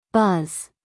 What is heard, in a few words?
"buzz", us female